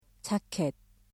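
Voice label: "자켓", Korean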